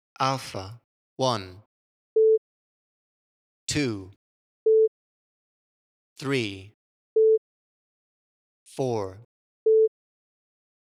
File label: voice cues pin number and beep